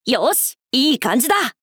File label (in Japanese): 「よし！いい感じだ！」, 男の子 王道系少年主人公 戦闘ボイス